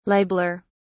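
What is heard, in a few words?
"libeller", British English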